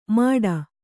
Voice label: "ಮಾಡ", Kannada